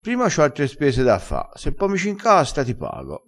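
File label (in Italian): "incastra", crespinese dialettale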